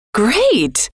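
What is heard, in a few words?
"great", female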